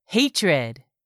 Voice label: "HATRED", english; 3000 words

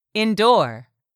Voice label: "indoor", English; 3000 words

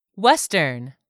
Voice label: "western", English word; 3000 words

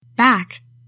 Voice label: "back", english; 3000 words

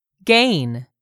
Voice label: "gain", English; 3000 words

